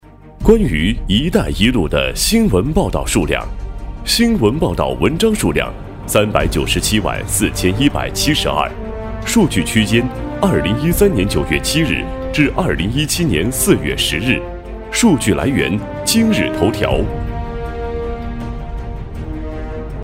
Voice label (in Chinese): 男 专题 新闻报道; 一带一路